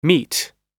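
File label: "meet", American English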